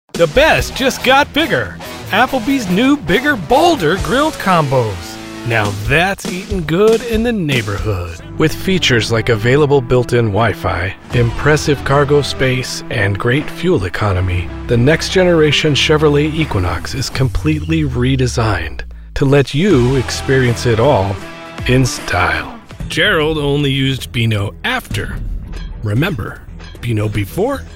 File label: commercial